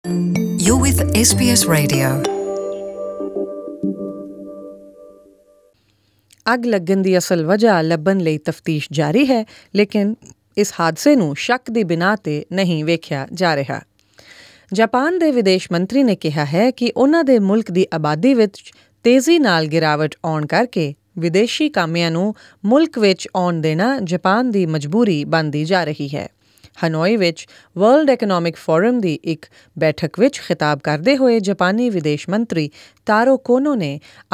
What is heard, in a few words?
punjabi; This country is now inviting foreign workers; SBS Punjabi; Japan's foreign minister says the country is bound to accept more foreign workers